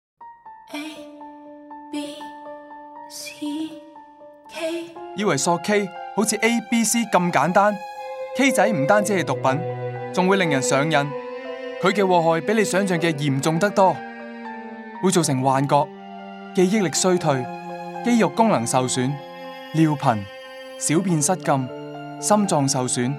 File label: cantonese; harm of abusing ketamine; radio